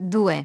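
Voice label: "two", English; iOS 4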